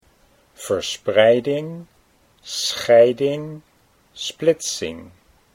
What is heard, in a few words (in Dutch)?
verspreiding scheiding, Dutch